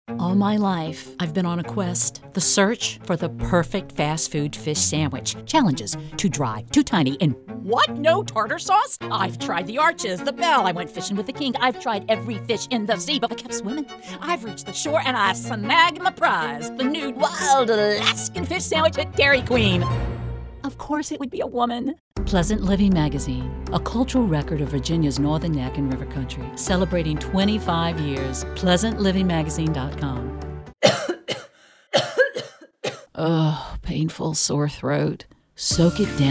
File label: Commercial VO